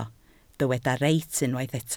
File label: "reit", Welsh